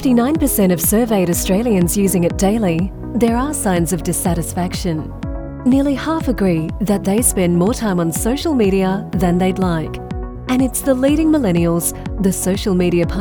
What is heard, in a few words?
Corporate